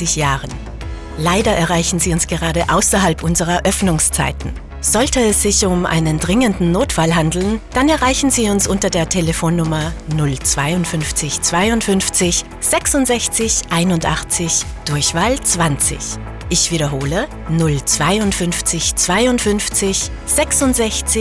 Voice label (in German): Heizung Nachtansage, telefonansagen; Swoboda; Oetz; telefonansagen sonstige